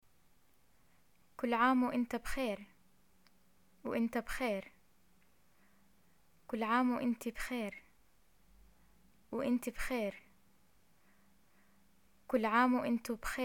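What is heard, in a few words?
greet birthday, arabic, Jordanian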